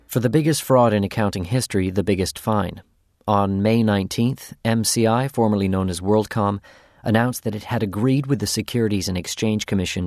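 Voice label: narration; 20-30s. US. Young, friendly and warm. Speaks fluent Arabic. Home Studio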